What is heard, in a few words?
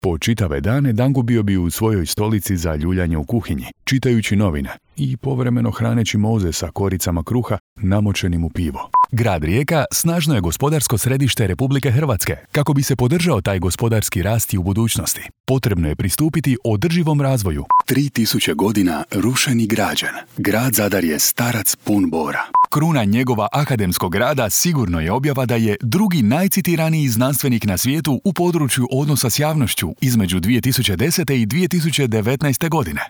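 Croatian, male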